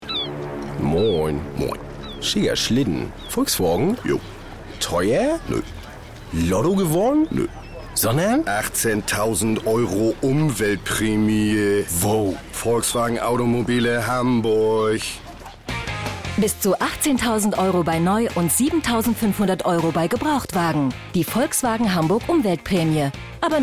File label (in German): Werbung Radio; Volkswagen